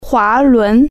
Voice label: "pulley", Chinese